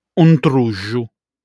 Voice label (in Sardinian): "Untruxu", sardu